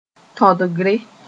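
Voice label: "tõdgre", Mooré; refusal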